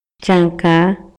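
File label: Maya, male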